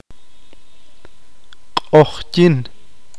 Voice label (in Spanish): "noche", qeqchi